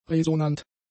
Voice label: "resonant", German; adjectives

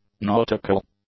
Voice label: "nautical", english; adjectives

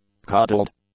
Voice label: "coddled", English; adjectives

